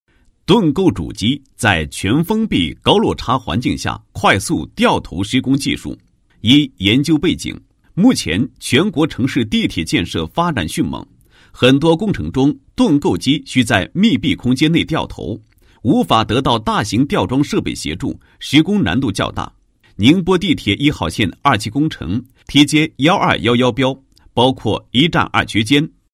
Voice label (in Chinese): 男 解说词 科研解说词; 某施工技术